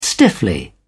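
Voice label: "stiffly", British English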